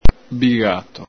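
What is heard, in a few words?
"bigato", Veneto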